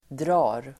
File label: "drar", Swedish